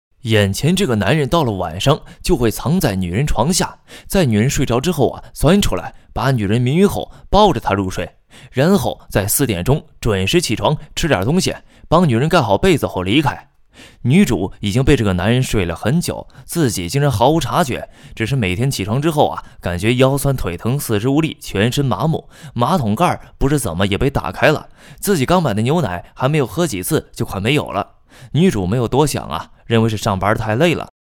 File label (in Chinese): 素人 电影解说